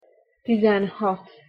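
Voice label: "tizenhat", Hungarian pronunciation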